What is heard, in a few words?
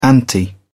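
"anti", English, British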